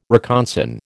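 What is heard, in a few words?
"reconsign", American English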